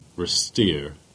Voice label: Cornish; Retire